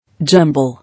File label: "Jumble", English